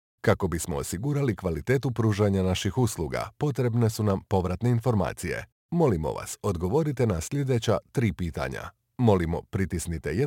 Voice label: IVR, croatian

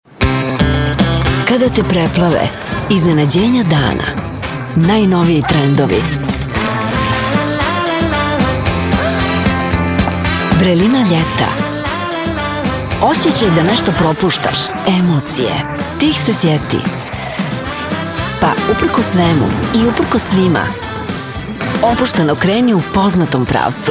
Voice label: Ad, serbian

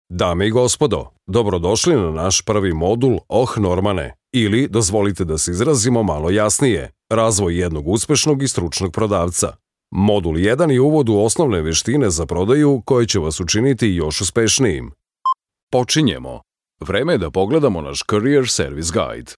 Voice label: Serbian narrations voice

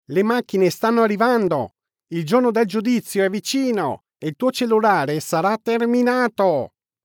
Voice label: Ringtone, italian

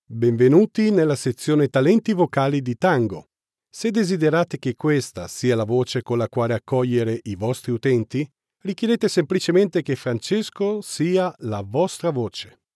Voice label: Corporate, italian

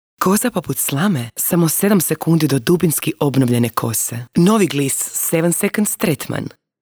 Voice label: Commercial, croatian

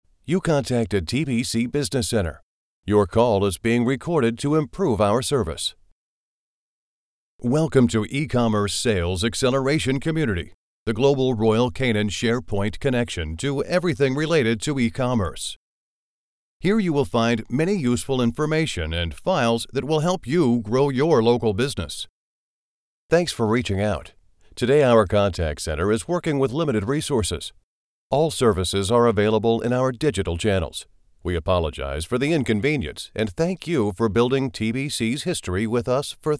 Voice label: Telephony, english

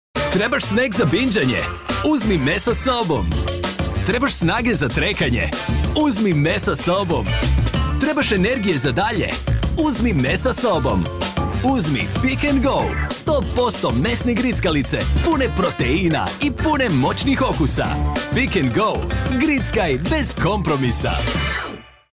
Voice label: ad, croatian

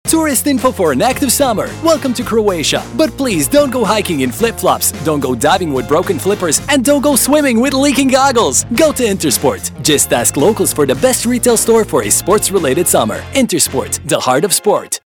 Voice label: upbeat, english

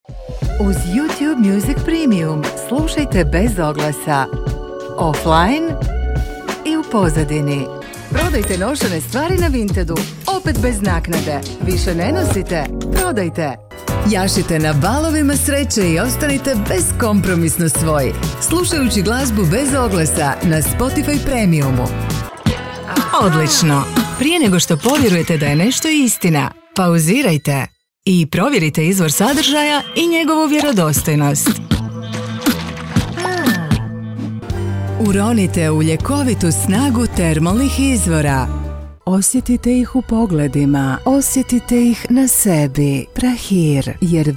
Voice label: Commercials, croatian

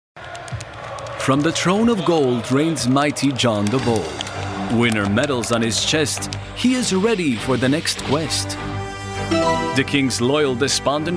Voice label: eloquent, english

